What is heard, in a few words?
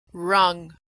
verb "rung", pronunciation